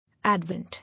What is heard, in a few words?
"Advent", English